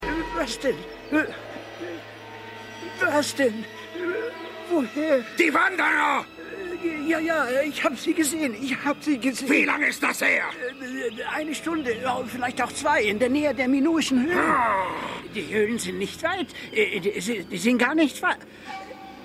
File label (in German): Alter Mann; Hercules